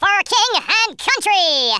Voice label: "kamikaze", wacky speech

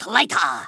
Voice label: "traitor", Kamikaze; Worms Armageddon

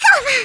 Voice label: "JUMP", Polish speech; Worms Armageddon

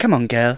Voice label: "come on then", Speech; Worms Armageddon

